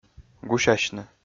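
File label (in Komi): "гусясьны", Komi, male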